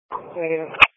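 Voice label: Police Patrol (Dispatch)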